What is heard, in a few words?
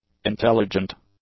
"intelligent", English; adjectives